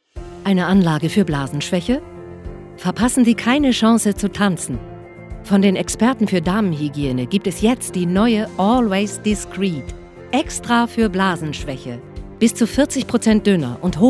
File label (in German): Werbung